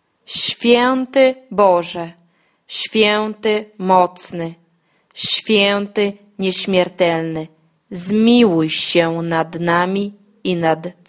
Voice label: deus santo lento, polaco; divina misericordia

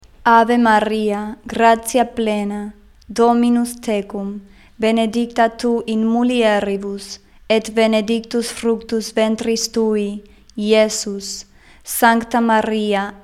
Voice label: ave maria latin normal

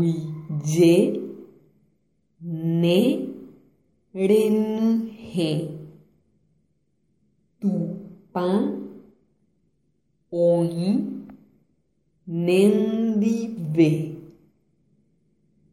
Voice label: ave maria guarani pausado

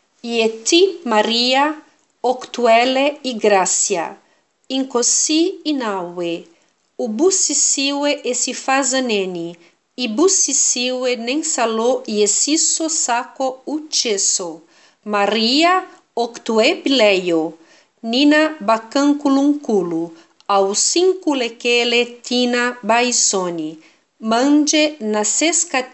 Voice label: ave maria zulu normal

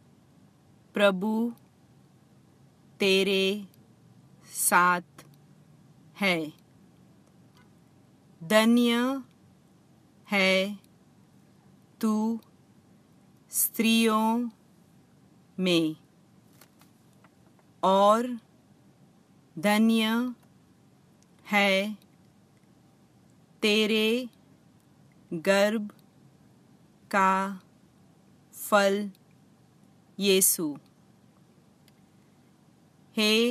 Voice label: ave maria Hindi pausado

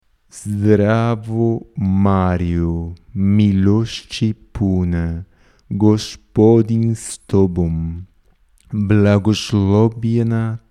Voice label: ave maria croata lento

